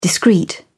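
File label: "discreet", British English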